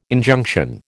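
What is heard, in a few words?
"Injunction", American English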